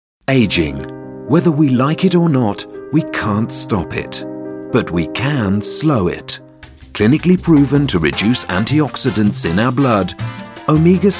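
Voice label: British, TV commercial; UK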